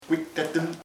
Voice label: sweet (thing), chamacoco; dulce